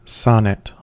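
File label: "sonnet", US English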